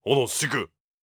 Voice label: angry